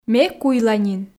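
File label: Komi sentences, female; ме куйла нин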